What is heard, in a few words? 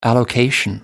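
"allocation", British English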